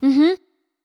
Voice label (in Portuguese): Confirmaçao neutra